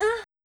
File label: "eh", voice